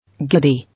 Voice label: "Giddy", English